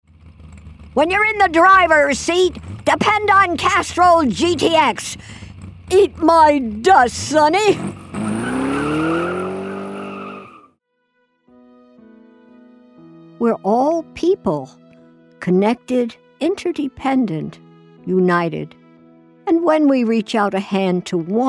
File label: Commercials